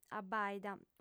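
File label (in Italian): "abbaida", sarda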